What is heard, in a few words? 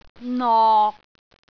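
"nok6", Cantonese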